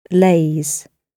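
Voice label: "lays", British English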